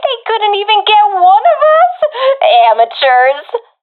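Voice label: round won nocasualities, professional female; vo; Counter Strike Model Pack; CS:GO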